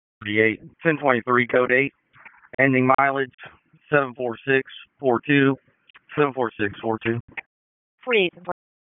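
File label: Sheriff's Office Dispatch; WSO DISP 3; wake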